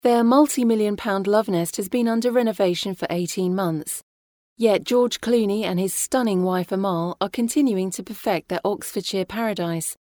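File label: British English, female, narration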